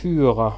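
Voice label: "führer", German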